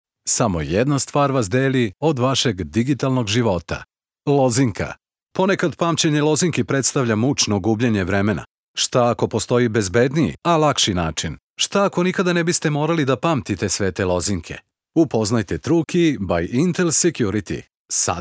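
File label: Serbian, male, elearning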